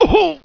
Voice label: pain; fgrunt